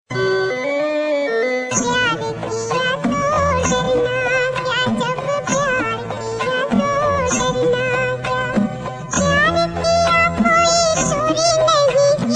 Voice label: funny bollywood

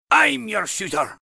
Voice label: dwarven

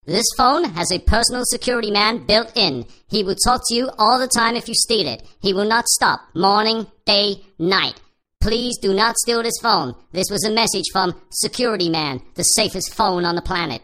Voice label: security message

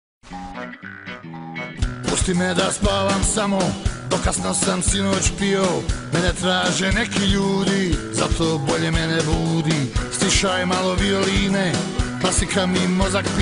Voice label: Announce

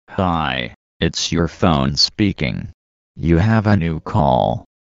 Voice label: phone speaking

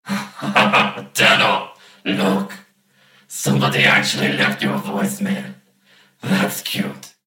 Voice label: voicemail

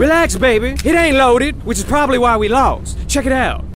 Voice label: Relax baby

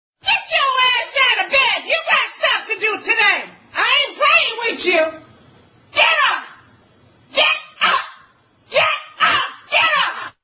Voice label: Angry Scary Mother

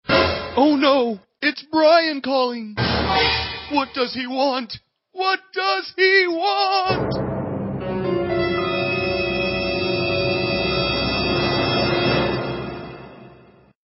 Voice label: Is Calling Rap

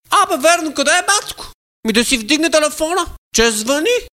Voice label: Is Calling RNB

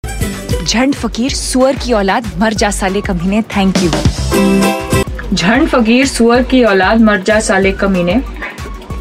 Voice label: voice funny; pika

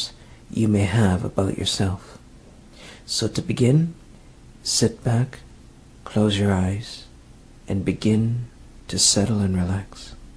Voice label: meditation

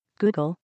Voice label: Google voice alert

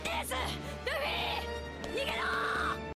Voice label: Yelling; Sabo

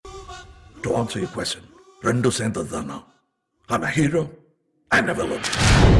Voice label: funny dialogue; classical

